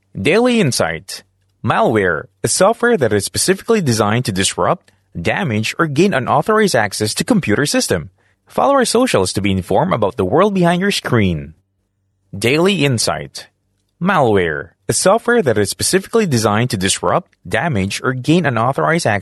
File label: Filipino English; Insight Technology